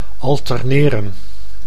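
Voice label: "alterneren", Dutch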